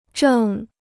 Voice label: "正", Chinese

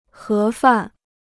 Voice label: "盒饭", Chinese

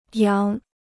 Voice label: "扬", Chinese

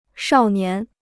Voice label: "少年", Chinese

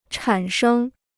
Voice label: "产生", Chinese

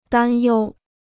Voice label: "担忧", Chinese

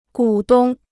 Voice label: "股东", Chinese

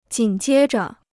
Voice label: "紧接着", Chinese

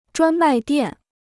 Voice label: "专卖店", Chinese

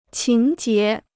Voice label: "情结", Chinese

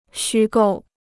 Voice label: "虚构", Chinese

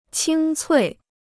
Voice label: "清脆", Chinese

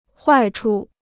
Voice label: "坏处", Chinese

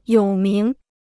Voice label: "有名", Chinese

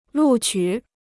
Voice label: "录取", Chinese

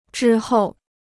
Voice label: "之后", Chinese

